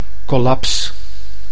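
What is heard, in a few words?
"collaps", Dutch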